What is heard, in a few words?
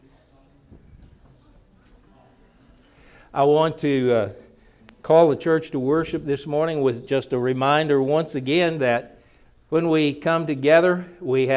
announcements